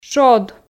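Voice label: "шод", Komi, female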